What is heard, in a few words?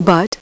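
"but", English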